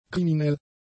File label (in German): "kriminell", German; adjectives